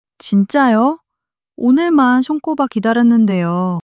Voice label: sadness

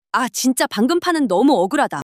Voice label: anger

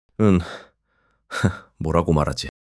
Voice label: fluster

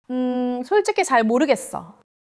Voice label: neutral

